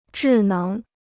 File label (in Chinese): "智能", Chinese